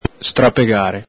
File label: "strapegare", Veneto